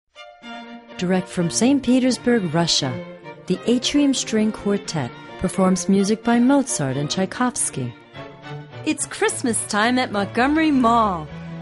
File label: Commercials Short